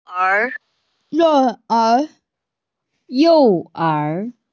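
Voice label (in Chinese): "you4er2", Mandarin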